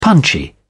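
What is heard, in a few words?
"punchy", British English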